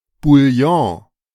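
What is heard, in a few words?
"Bouillon", German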